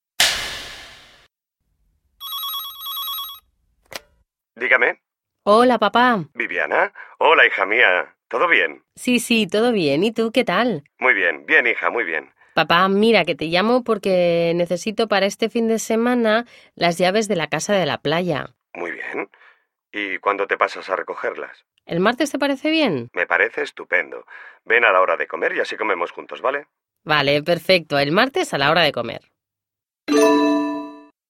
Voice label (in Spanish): Llamada de telefono